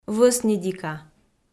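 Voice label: "вӧсньыдика", Komi, female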